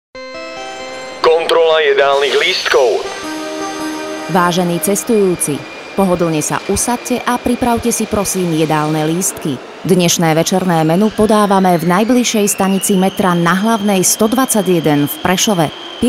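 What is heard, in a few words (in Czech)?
reklami spot; restauracemetro; kontrolajedalnychlistkov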